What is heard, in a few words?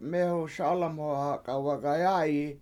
mEEhu SAwlamhuhak7a wagayAy7i The Boy Is Talking With The Girl, Washo